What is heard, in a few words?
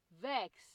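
"vex", Nigerian Pidgin, female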